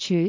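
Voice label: "cyut2", Cantonese; amazonHiuJin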